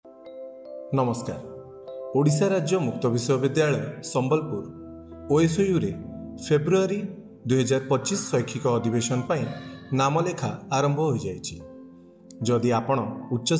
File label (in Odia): Odia; OSOU ADMISSION FEB 2025; admission 2024-25 february 2025